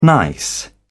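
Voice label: "nice", British English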